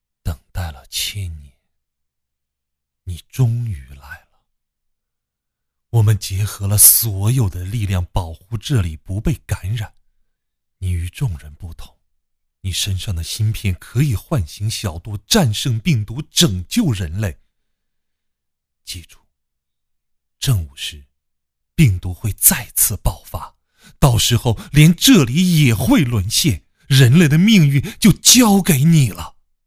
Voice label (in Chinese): 情声的配音